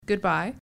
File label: Goodbye, English